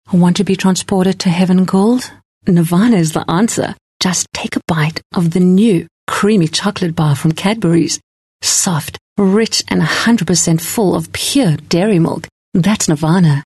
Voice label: female English South African chocolate commercial; cadbury